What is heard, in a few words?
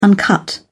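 "uncut", British English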